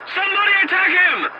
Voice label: voice message attack target, english; War Thunder